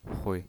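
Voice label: "hui", neutral tone, pinyin voice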